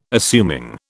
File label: "assuming", British